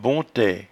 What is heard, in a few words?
"bonte", patois jura